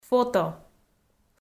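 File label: "фото", Komi, female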